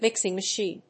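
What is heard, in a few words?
"mixing machine", English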